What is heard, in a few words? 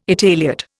"Italiot", Indian